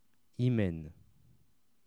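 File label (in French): "hymen", French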